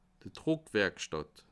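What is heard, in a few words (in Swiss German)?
"druckwerikstatt", Bas Rhin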